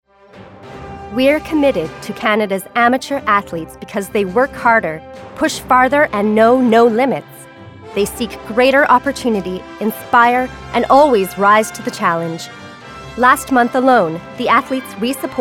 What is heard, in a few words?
Voice Demo